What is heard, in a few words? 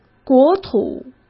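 "国土", Mandarin Chinese; chinese-flashcards; hsk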